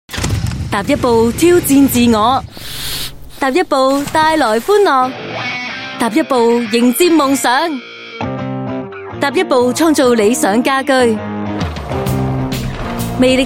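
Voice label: female Cantonese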